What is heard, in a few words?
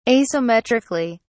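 "asymmetrically", British English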